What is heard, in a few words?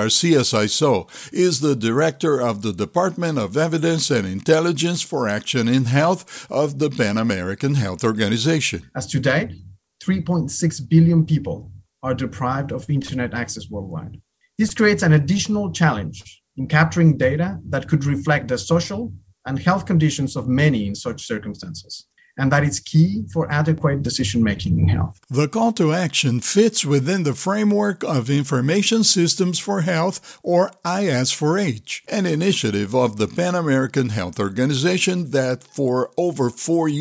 podcast digital transformation